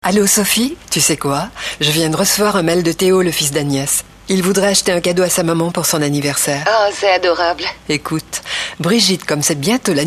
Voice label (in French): Pub radio dialogue; Casino